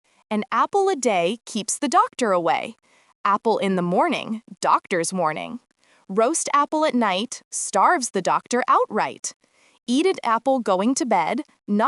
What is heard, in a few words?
An Apple a Day fem us